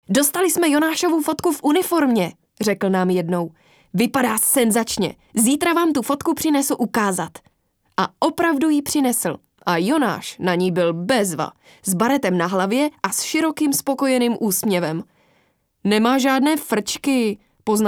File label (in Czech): UKAZKA AUDIOKNIHA